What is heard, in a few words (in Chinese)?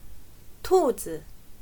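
"兔子" Mandarin Chinese; 咕咚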